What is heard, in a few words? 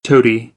"toady", American English; oʊ - əʊ